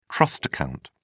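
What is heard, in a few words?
"trust account", British English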